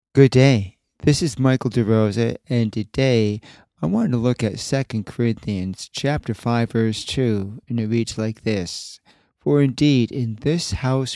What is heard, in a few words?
Radio Sermonette; Groaning; 2 Corinthians 5 2; something far better